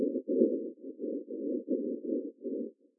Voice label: vocode